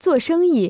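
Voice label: "做生意", Chinese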